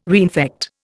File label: "reinfect", Indian